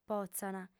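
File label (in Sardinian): "potzant", female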